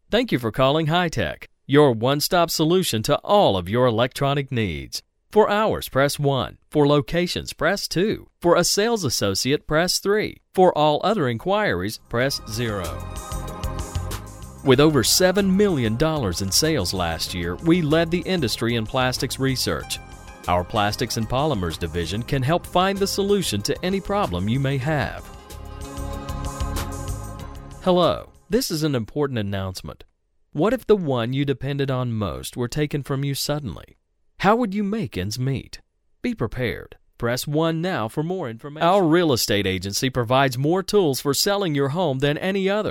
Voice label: IVR On Hold